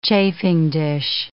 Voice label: "chafing dish", English